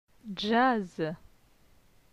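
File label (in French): "Jazz", French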